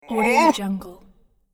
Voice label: medieval voice; game